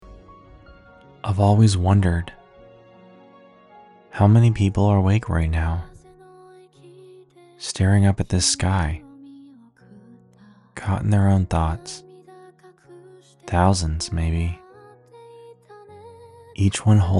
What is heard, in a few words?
Narration